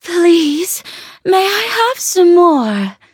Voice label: level up, en; cookie run

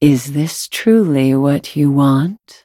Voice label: battle en

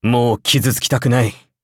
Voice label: upgrade, ja; Cookie Run

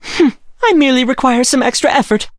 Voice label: defeat, en; Cookie Run

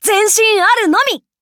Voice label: levelup ja; cookie run

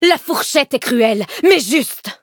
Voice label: levelup, fr; Cookie Run

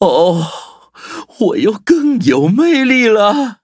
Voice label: levelup zh-hant; Cookie Run

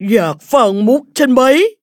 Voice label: level up, th; cookierunwiki

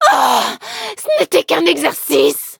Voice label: defeat, fr; cookie run

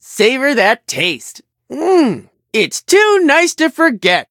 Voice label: level up, en

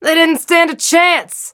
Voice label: victory en; cookie run

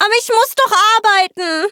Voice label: hit, de; Cookie Run

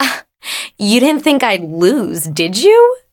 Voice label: victory, en; Cookie Run

